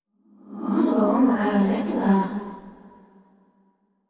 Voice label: VO thinking; yamiko

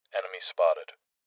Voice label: Voices EnemySpotted Male; rebel cops 2019 gamerip

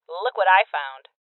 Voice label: Voices Loot Spotted Female

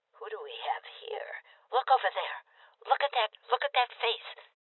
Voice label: Voices Enemy Spotted Female Old; rebel cops 2019

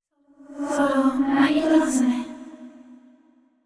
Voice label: sad; yamiko

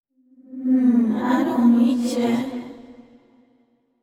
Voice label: VO thinking; yamiko

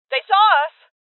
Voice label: Voices Alarm State Female

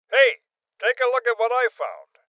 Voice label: Voices OpenSafe Male Old; rebel cops 2019 gamerip

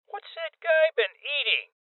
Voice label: Voices Heavy Spotted Male Old; rebel cops 2019 gamerip

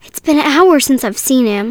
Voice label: Girl Worried; Warehouse

